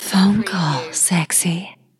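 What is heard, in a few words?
Female Phone Call Sexy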